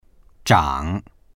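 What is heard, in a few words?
"zhang3", Chinese; 长; 成長する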